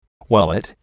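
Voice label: "wallet", English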